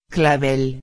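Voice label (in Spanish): "clavel", Spanish; ortografia; heterosemanticos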